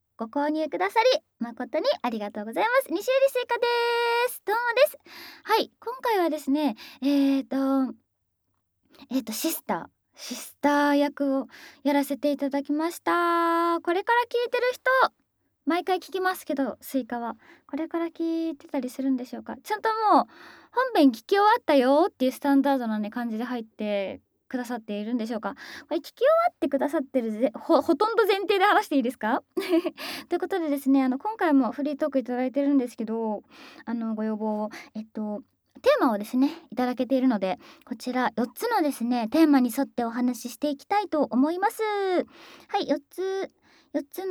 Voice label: フリートーク; 異世界シスターの隠れた品性～村一番の清楚シスターさんの本性は、オホ声下品アクメ好きなよわよわ最弱おまんこの持ち主でした～

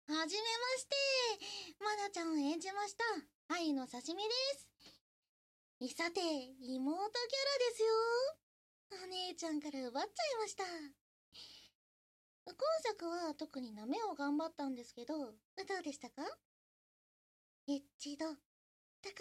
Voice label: フリートーク, ASMR; 彼女の妹と寝取られ童貞卒業セックス!～巨乳娘に誘惑されたり煽られたりして我慢できません～

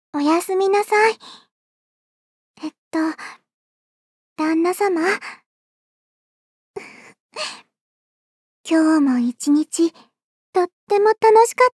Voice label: おやすみなさい、えっと…旦那様…ふふっ……今日も一日、とっても楽しかったです…ちゅっ, ASMR; アラーム音声

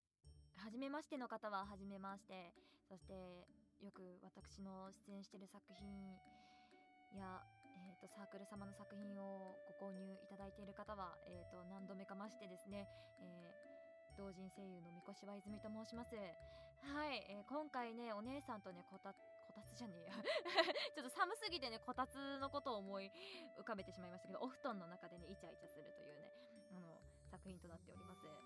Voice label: フリートーク BGM入り; お姉ちゃんはお布団の中 頬ずり 甘キス 耳舐め 乳首愛撫受け 騎乗位H

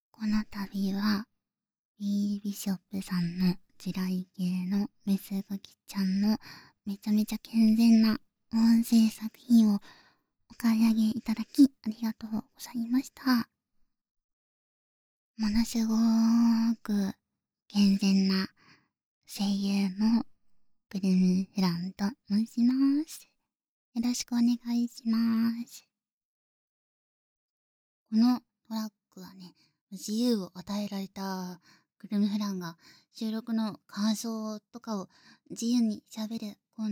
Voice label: フリートーク, ASMR; 地雷系メスガキ

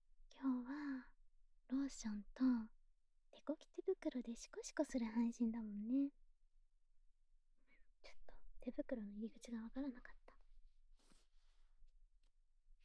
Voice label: 手コキ手袋でおちん〇んマッサージ, ASMR

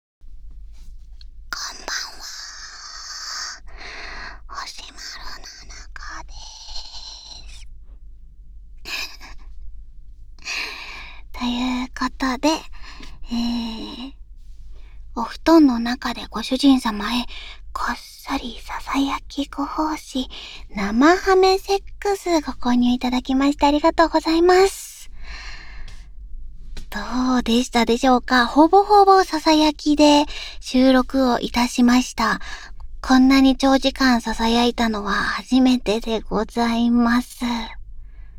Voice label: フリートーク, ASMR; 生密着!お布団潜ってご主人様へご奉仕ささやき背徳セックス

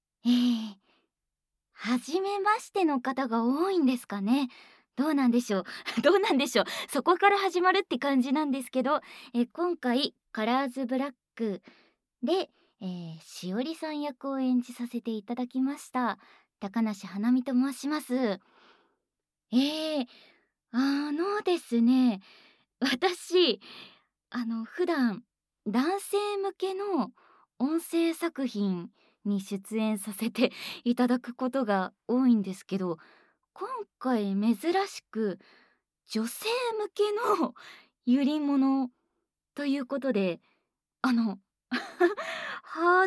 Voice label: フリートーク; Colors Black -黒き華は傍らで手を握りしめる

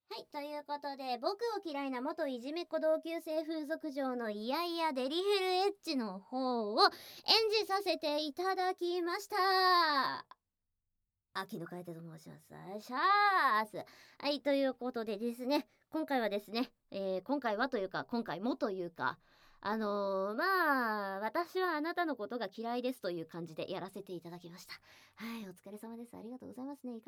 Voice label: フリートーク; 僕を嫌いな元いじめっ子同級生風俗嬢の嫌々デリヘルH

